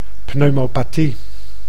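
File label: "pneumopathie", Dutch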